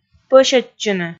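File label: "пӧсьӧдчыны", Komi, female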